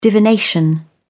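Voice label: "divination", British English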